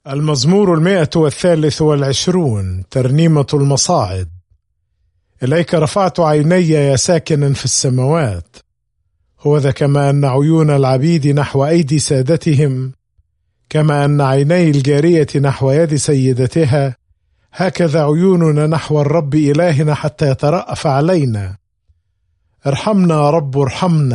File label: Psalm, arabic bible; psalms